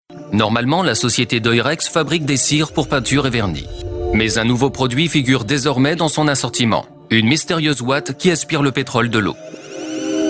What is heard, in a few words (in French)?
Documentaire, Voix off; Une super éponge pour le pétrole